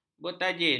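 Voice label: "bertajin", Malay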